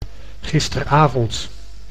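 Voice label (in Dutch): "gisteravond", Dutch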